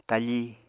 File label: "tafí", Apatani